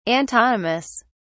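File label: "antonymous", British English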